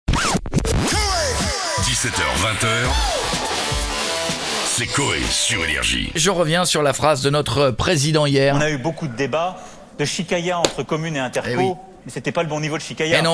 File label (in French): telephone trottoir; cauet